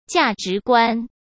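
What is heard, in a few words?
"价值观" Mandarin Chinese; hsk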